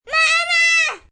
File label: "Mamma" Kids, Yelling, child, girl